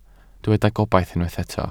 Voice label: "gobaith", Welsh